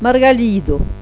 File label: "margalid", Occitan